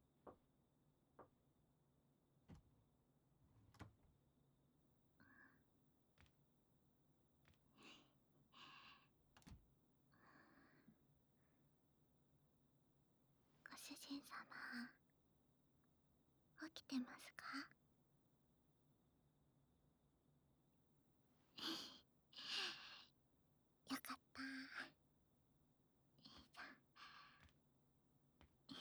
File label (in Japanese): ご主人さまとの秘密の添い寝♪, ASMR